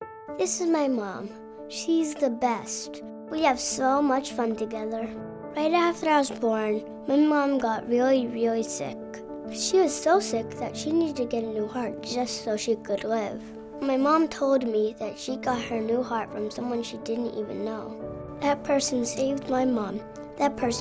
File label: RADIO